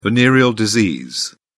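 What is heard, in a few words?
"venereal disease", British English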